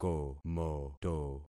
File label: "komoto", concat syllables; Affixing experiment